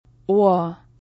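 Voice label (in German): "Ohr", German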